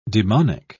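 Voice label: "daemonic", British English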